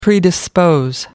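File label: "predispose", English, British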